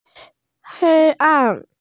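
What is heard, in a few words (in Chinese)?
"hei1an4", Mandarin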